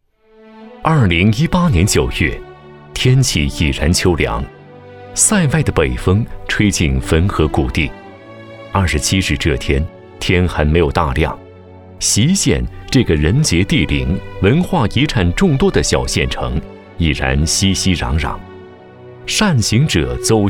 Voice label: 城市宣传; 爱撒梨乡

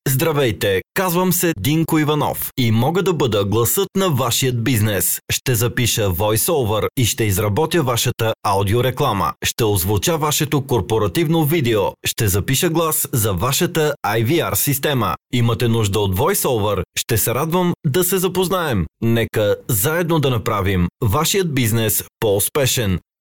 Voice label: 保加利亚语男年轻磁性

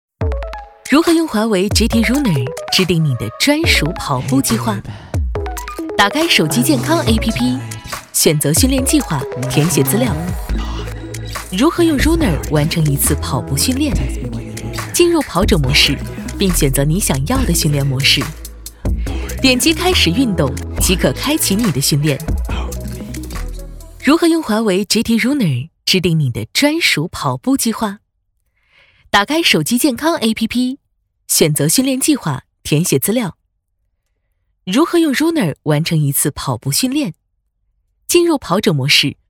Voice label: 解说科技时尚; 华为解锁新姿势

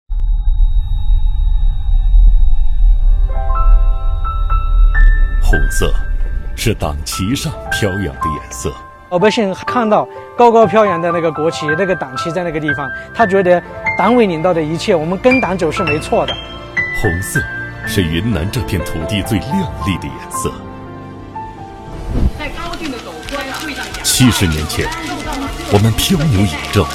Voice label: 温度大气宣传片

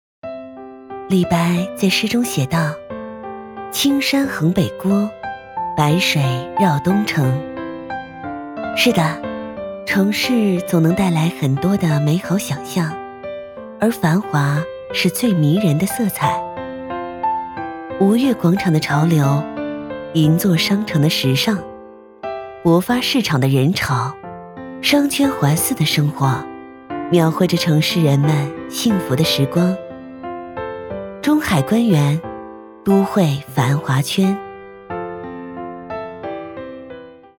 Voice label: 走心类; 中海观园

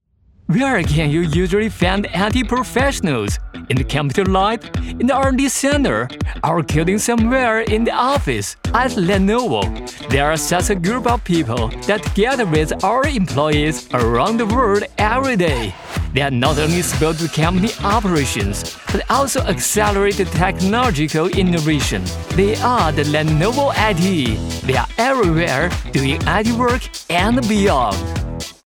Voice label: 英文; 联想

